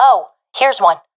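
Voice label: sees one enemy, professional female; vo; agents; Source Model Packs; Counter Strike Model Pack; CS2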